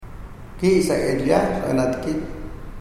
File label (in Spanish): moqoit estrellas; materiales bilingues; huellas